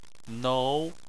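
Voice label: "nou" second tone, Chinese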